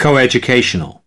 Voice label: "coeducational", US

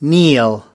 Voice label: "kneel", US

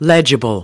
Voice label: "legible", US

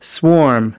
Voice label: "swarm", US

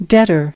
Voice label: "debtor", US

